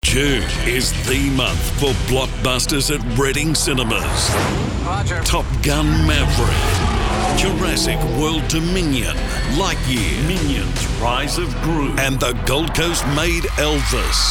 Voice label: Movie Trailer; SCA GC Reading Cinemas

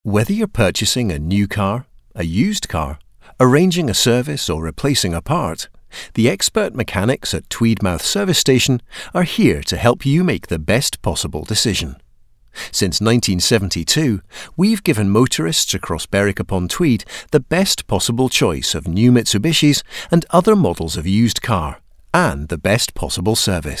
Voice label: on hold

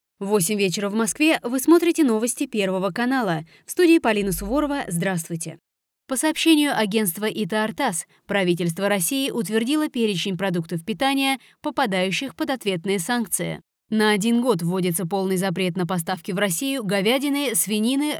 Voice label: news TV

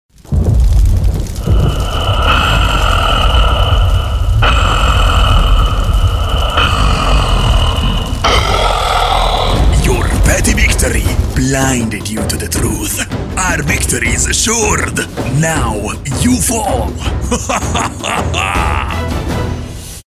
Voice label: Games Character ENG

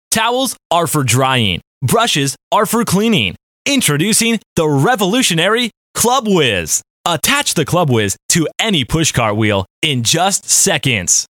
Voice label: Studio Sample

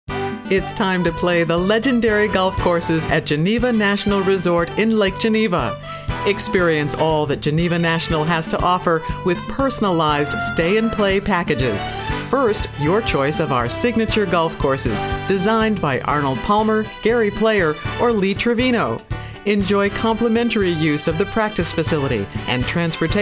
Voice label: Spot; Geneva National

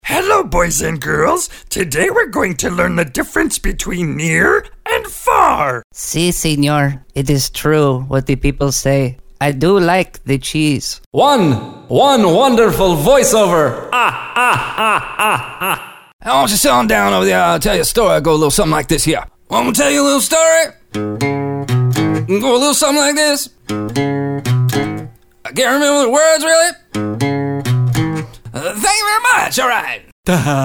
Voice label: Character

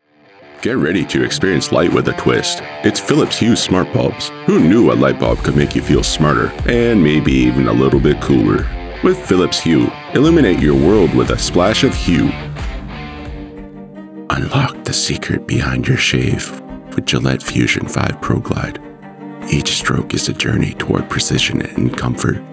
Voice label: Commercial, UK

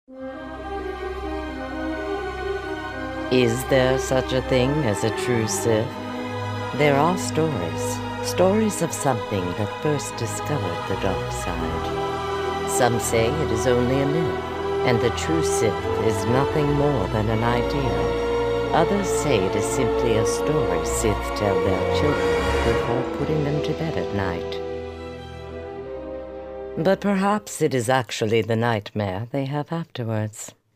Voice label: Videogame Promo

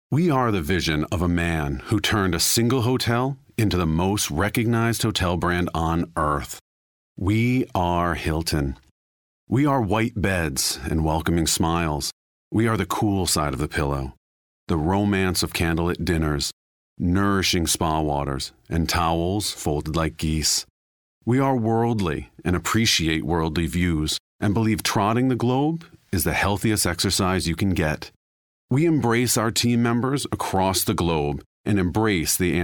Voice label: corporate dry reads

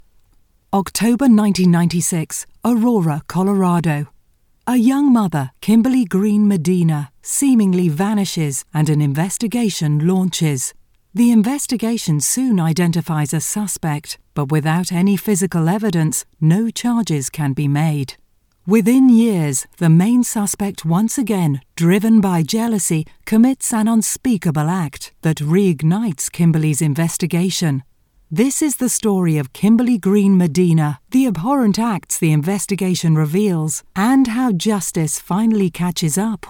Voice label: Crime Documentary

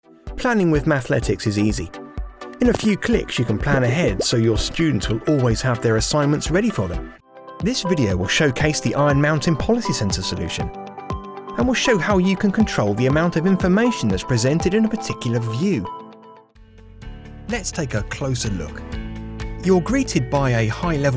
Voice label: eLearning

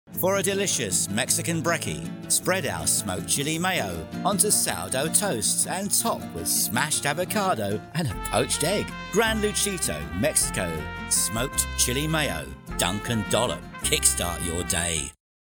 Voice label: Food commercial

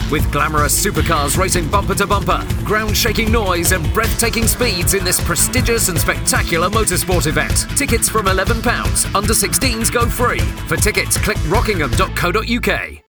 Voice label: BRITISH commercial; ROCKINGHAM; GT

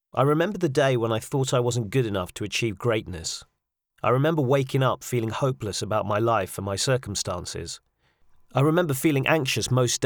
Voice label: narration anxiety

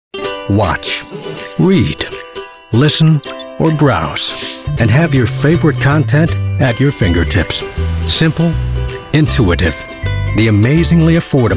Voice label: Commercials

